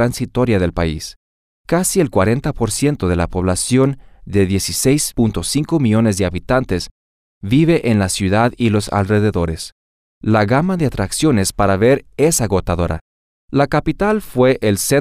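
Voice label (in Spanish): Chile, Santiago, Spanish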